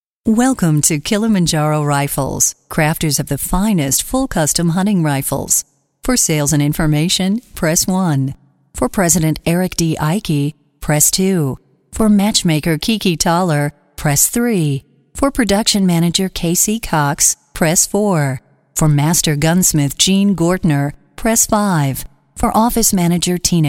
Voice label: IVR menu sultry tone